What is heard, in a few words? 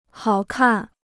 "好看", Chinese